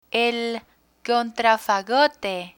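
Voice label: "contrafagote", Spanish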